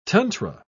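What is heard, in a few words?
"tantra", British English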